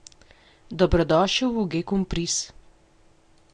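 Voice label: welcome, Brazilian Portuguese; gcompris-data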